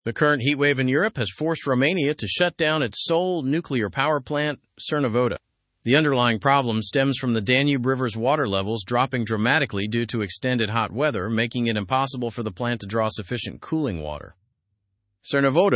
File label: english news advanced; romania s nuclear plant shut amid danube water crisis